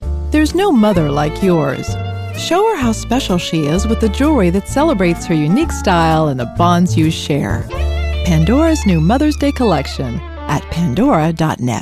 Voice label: Commercial; Pandora